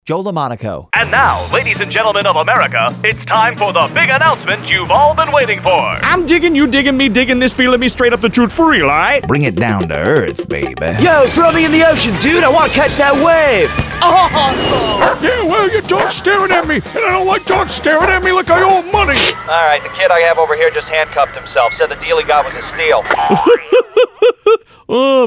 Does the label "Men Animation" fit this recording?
Yes